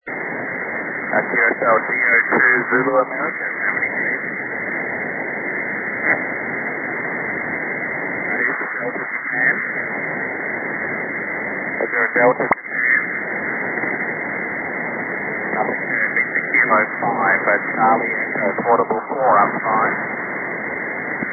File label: 15m ssb